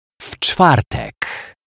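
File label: "w Czwartek", Polish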